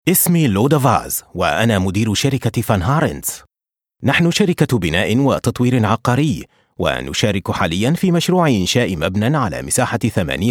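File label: Arabic voiceover; sprecher; arabisch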